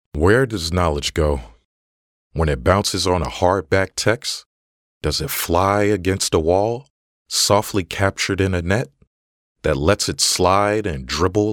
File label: Audiobook